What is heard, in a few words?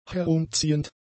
"herumziehend", German; adjectives